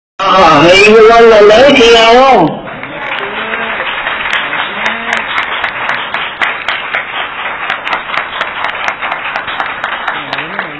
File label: phone call; lonavla